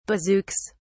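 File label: "bazookas", English, British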